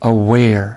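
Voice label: "aware", English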